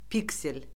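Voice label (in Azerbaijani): "piksel", Azerbaijani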